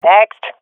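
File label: i killed enemy, swat female; Counter Strike Model Pack; CS:GO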